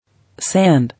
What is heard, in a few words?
"Sand", English